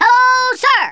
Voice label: "hello", Drill Sergeant; Worms Armageddon

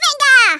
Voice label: "come on then", Hispanic; Worms Armageddon

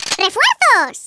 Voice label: "collect", Hispanic; Worms Armageddon

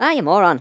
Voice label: "stupid", Irish; Worms Armageddon

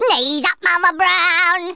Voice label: drop, Speech, Geezer; Worms Armageddon

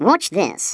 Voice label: "watch this", Stiff Upper Lip

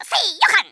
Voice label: "DRAGON PUNCH", Greek; Worms Armageddon

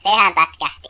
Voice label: "excellent", Finnish; Worms Armageddon

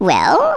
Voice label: "come on then", speech; Worms Armageddon

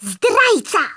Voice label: TRAITOR, Speech, Polish; Worms Armageddon

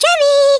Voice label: "hello", Hungarian; Worms Armageddon

